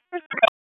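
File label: Raleigh Police North District Tactical; wake; p25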